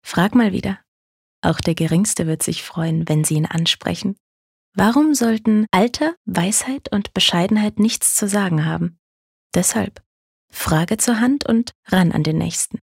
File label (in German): Werbung, Sanft